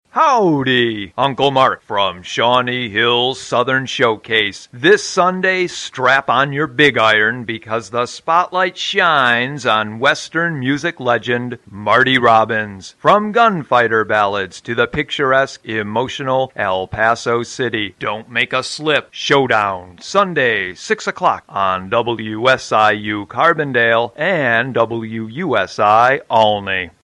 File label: Promo